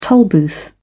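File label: "tollbooth", British English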